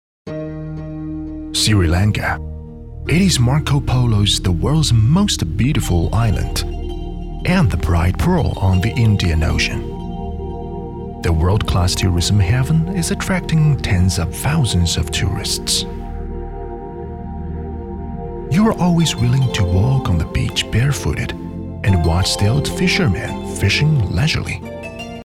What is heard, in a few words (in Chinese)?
英男 专题 探索发现风格